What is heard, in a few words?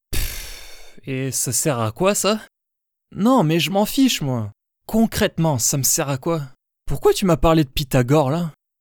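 French Character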